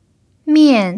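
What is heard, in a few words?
"mian" fourth tone, chinese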